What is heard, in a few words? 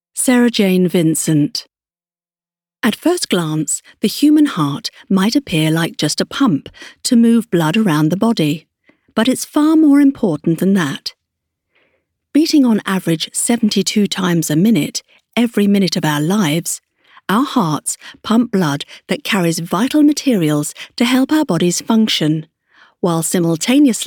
corporate voice reel